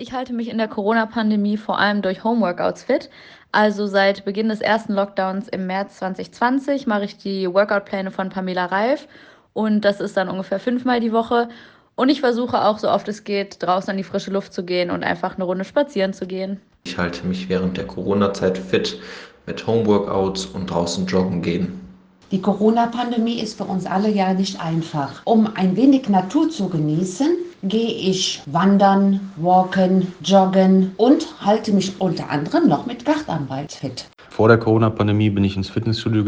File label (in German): O-Ton Collage